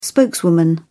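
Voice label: "spokeswoman", British English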